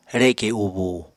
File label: "reke ubúu", Apatani